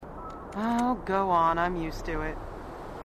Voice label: female marine, sassy